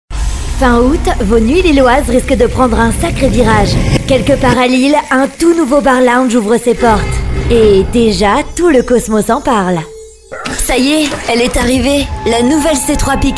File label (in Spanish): locutora francesa